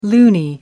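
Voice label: "loonie", British English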